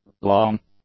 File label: "long", English; adjectives